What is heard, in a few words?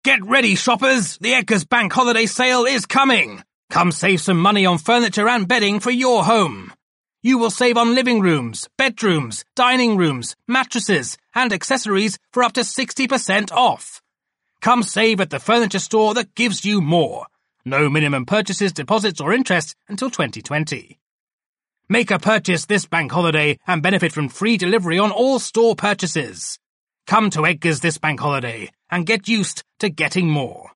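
English, male, commercial; advertising voice over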